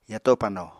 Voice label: "yato pano", Apatani

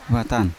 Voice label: "vatan" person human, Yawa

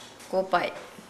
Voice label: "hat", Nukuoro

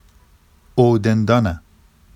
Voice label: "owdendɑna", Vafsi; اُودِندانَ

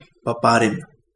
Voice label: palm, Kapingamarangi

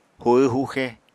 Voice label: "huhw-huxe", apatani

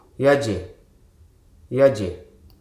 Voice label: "hither to", Hruso

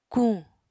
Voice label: "kuñ", Apatani

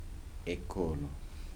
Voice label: "evening", Kibembe

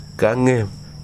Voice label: "name", Wahgi; lae unitech

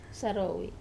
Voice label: "sarowi" whale, Wamesa

